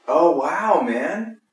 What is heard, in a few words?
"Oh Wow" Man